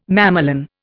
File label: "mamelon", Indian